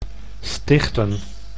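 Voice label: "stichten", Dutch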